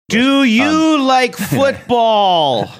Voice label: saying do you like football slowly loudly